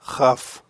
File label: "khaf", hebrew